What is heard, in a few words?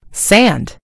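"sand", American English; gcompris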